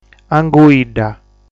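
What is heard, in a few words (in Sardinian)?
"Anguidda", Sardinian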